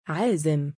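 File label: "3aazim" male, Arabic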